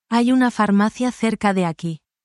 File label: spanish, spanish phrases